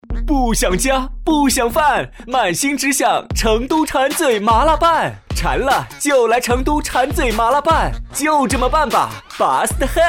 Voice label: 麻辣拌 叫卖

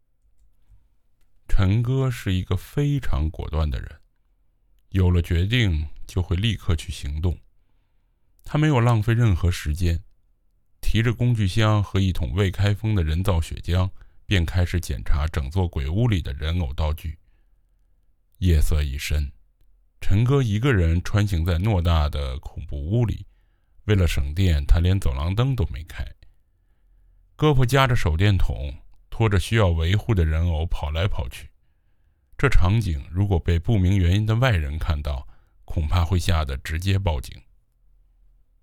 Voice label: 悬疑小说旁白

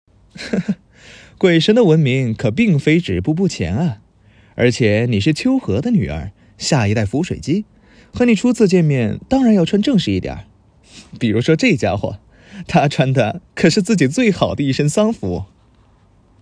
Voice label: 动漫角色

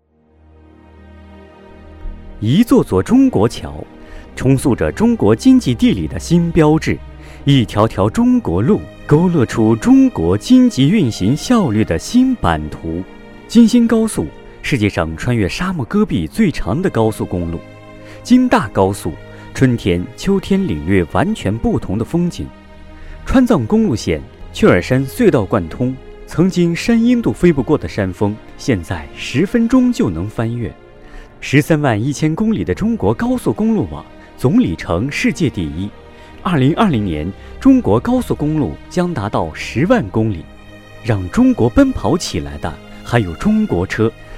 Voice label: 专题 配音

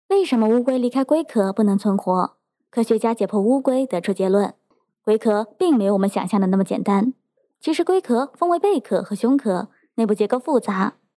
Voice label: 科普类短视频配音

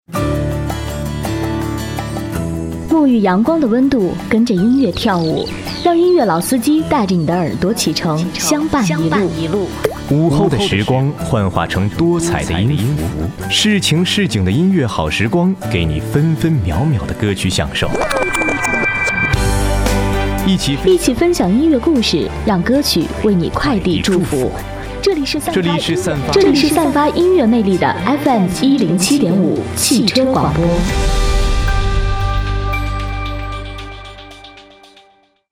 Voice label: 电台宣传带温柔

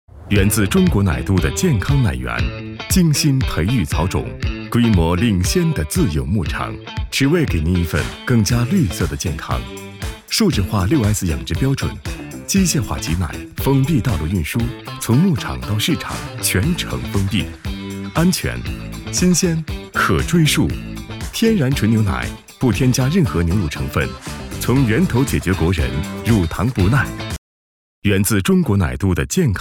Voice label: 广告天然牛奶

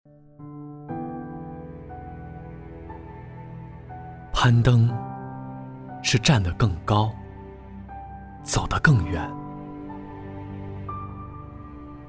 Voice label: 角色攀登学生旁白

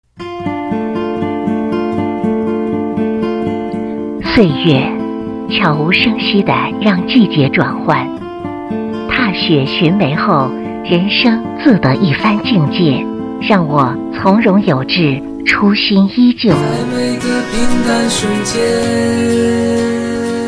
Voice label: 电台宣传片花

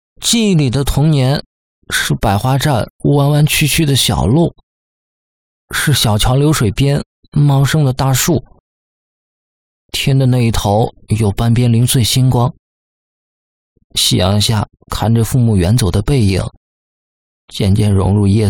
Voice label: 童声岁小男孩

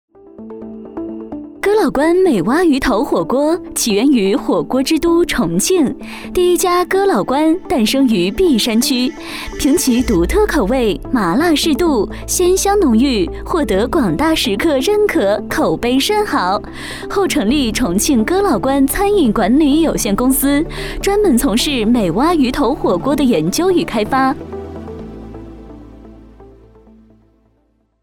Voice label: 大气宣传类

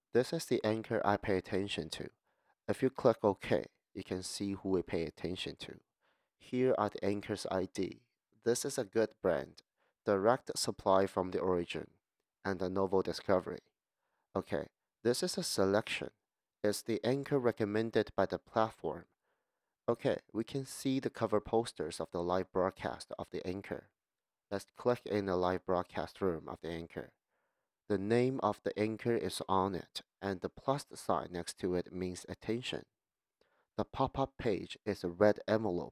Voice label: 英文配音

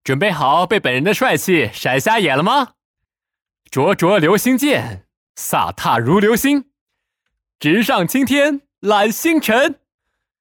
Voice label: 台词模仿配音

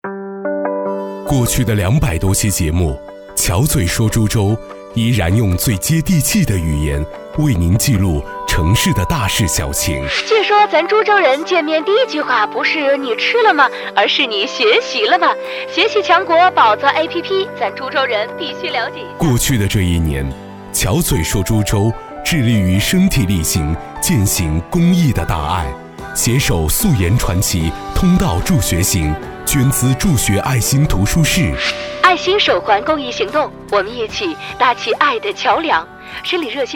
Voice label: 电台 宣传 样音 简单大气

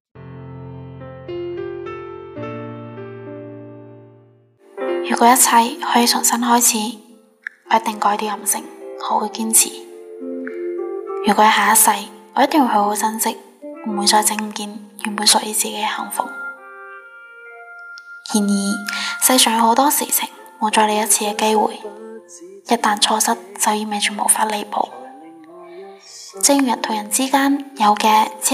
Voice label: 粤语文案

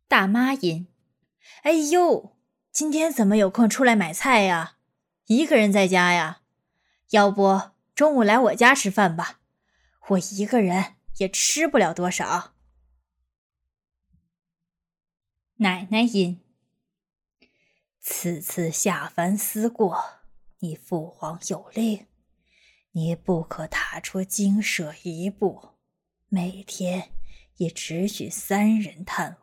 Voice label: 声展大妈音奶奶音

